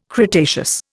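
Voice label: "cretaceous", Indian English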